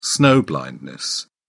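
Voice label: "snow blindness", British English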